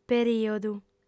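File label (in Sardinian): "perìodu", female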